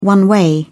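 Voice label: "one way", English, British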